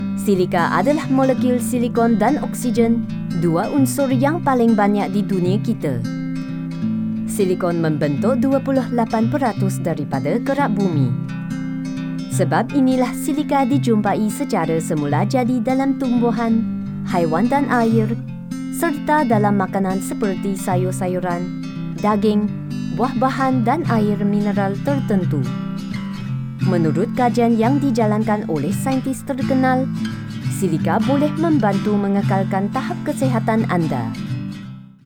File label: Malay e-learning